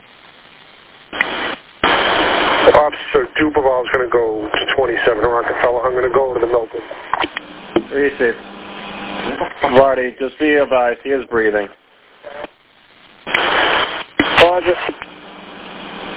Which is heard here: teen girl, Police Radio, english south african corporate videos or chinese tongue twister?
Police Radio